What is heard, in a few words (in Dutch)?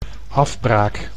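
"afbraak", Dutch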